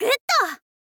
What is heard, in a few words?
"good", info girl voice